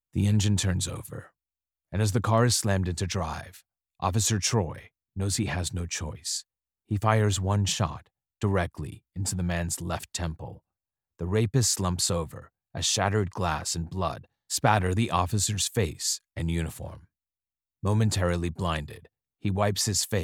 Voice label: english american audiobooks